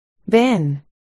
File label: "bin", articulation